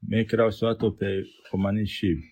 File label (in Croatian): romski; POP UP Zagreb